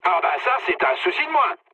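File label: French player killed enemy mood med; War Thunder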